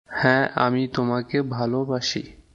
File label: Bengali, Bangladeshi Accent; Yes, I love you.; Ha, ami tomake bholobasi.